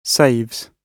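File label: "saves", British English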